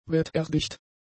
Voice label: "wetterdicht", German; adjectives

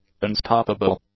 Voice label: "unstoppable", english; adjectives

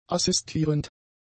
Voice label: "assistierend", German; adjectives

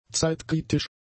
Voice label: "zeitkritisch", German; adjectives

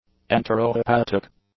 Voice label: "enterohepatic", English; adjectives

